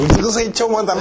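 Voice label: "chooma", Minamiyamagata dialect Japanese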